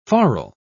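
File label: "forrel", English, British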